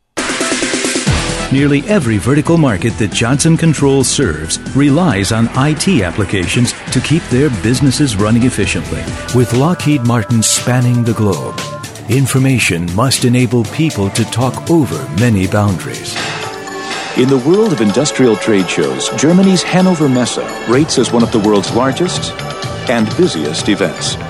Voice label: Narration Norm